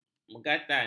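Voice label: "megatan", Malay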